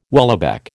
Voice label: "whaleback", American

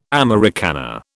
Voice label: "Americana", British

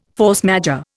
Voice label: "force majeure", Indian

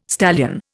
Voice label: "stallion", Indian

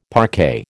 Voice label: "parquet", American

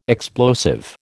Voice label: "explosive", American

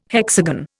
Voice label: "hexagon", Indian

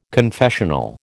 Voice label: "confessional", American

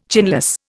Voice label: "chinless", Indian

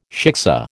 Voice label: "shiksa", American

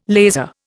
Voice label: "laser", Indian

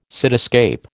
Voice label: "cityscape", American

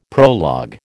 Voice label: "prologue", American

